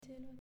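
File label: "Cielo", Italian, female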